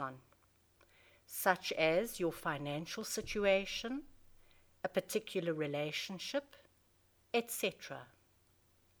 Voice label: Relaxation Positive Awareness